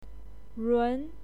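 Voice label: "run2", Mandarin Chinese pinyin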